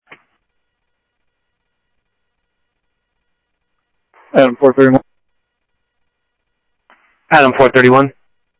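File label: Sheriff East County, scanner audio trunk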